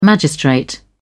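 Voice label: "magistrate", British English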